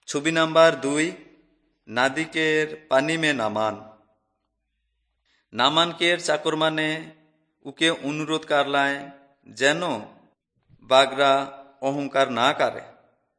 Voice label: urao, drama